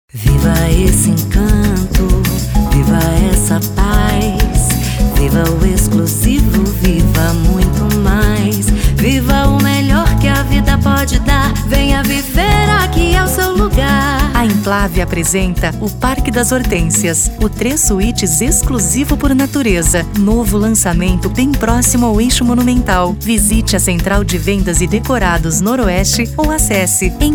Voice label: portuguese brazilian real estate; voice actor